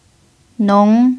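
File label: "nong" second tone, chinese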